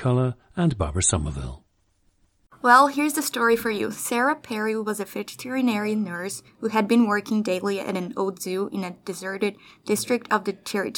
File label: brazil, dialects